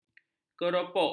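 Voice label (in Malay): "keropok", Malay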